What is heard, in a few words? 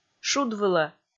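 "шуд вылӧ", Komi, female; kpv audio dict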